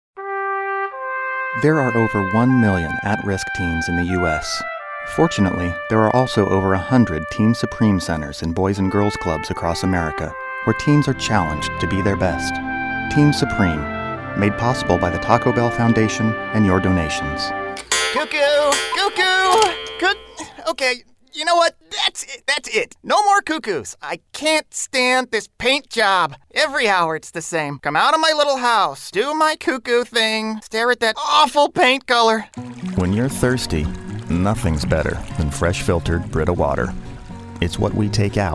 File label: Commercial